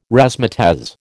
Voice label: "razzmatazz", American